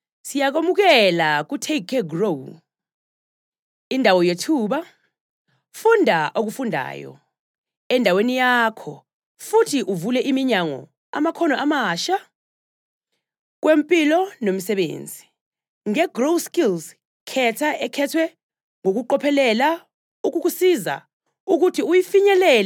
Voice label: Zulu

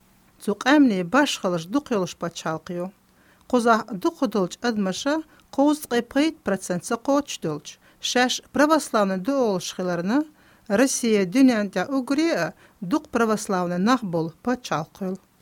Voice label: Chechen

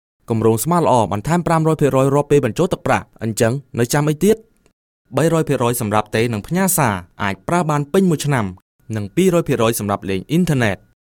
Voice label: KHMER

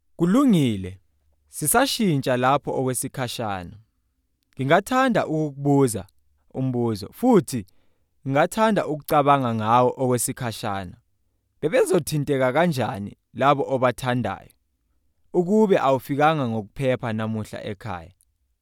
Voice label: Zulu

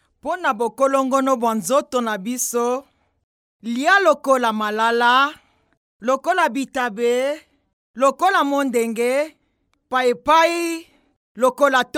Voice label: Lingala

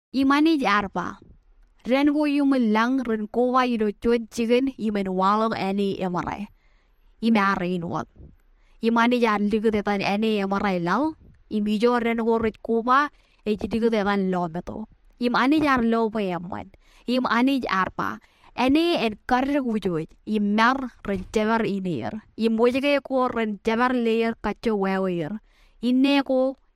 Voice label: Marshallese

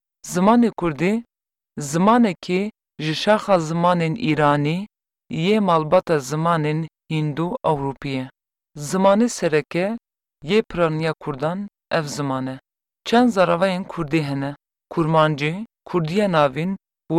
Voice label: KURDISH KURMANJI

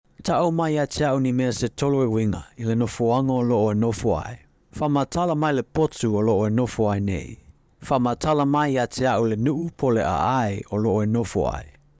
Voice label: Samoan

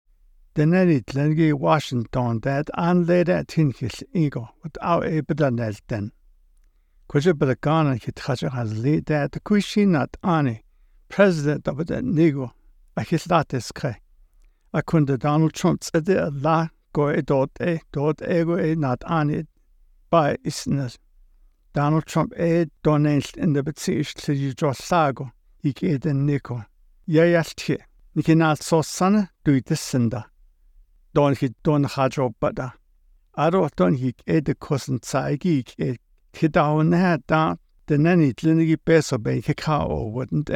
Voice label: Navajo

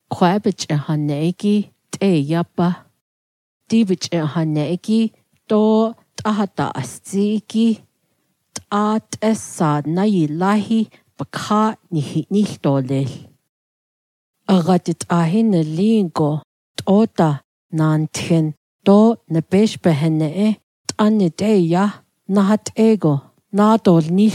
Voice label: NAVAJO telephony

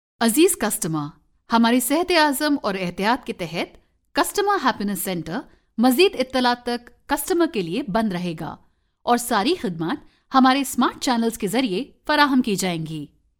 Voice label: Urdu

